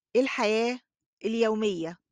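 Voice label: "الحياة اليومية", Arabic; everyday life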